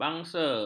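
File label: "bangsa", Malay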